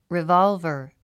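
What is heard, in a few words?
"revolver", English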